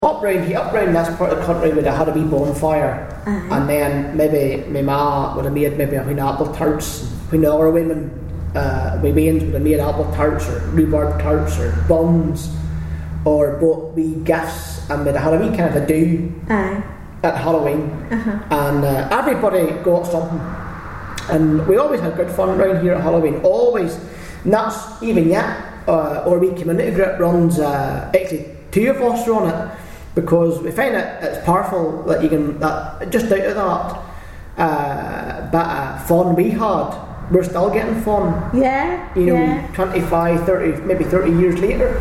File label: dialects ni